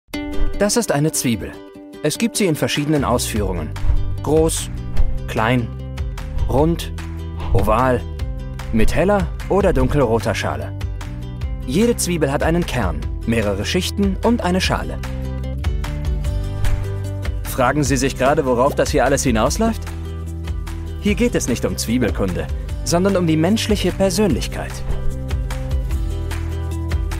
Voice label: explainer; zwiebel

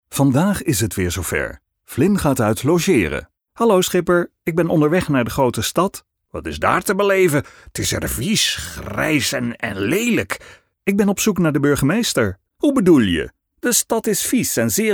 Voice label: nl mix of characters in game for kids

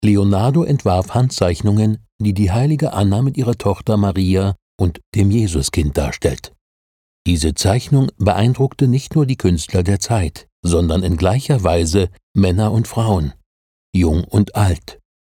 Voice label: voice over museum; leonardo da vinci

